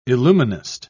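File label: "illuminist", British English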